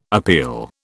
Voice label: "appeal", British